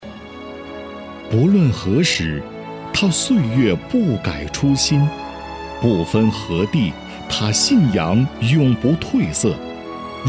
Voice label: 男 宣传片; 福州大学

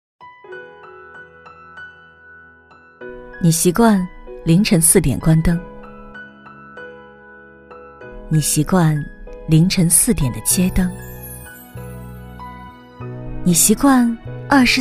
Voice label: 女 旁白

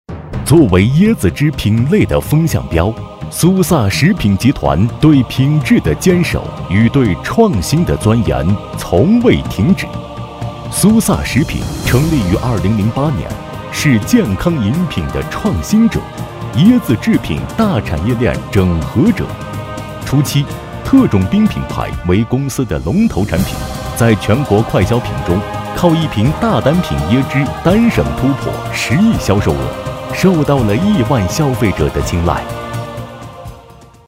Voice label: 男 企业专题

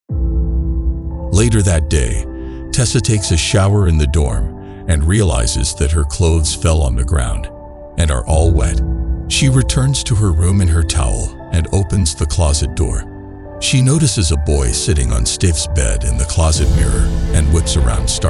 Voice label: 美式 外籍 大气深沉

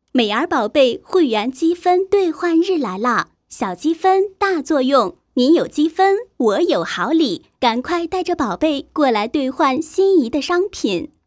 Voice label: 女 促销 温柔 干音; 美儿宝贝

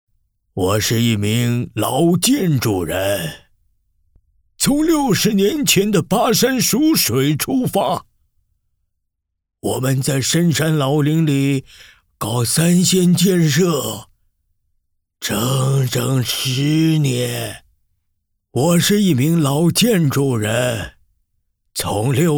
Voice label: 老人话剧舞台 短剧配音

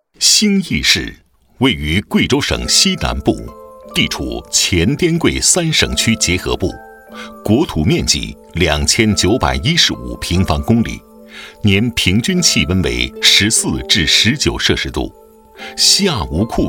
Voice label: 男 宣传片 磁性温和; 贵州

